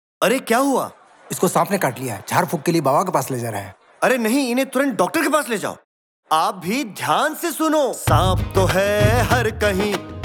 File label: Radio Jingle; snakebite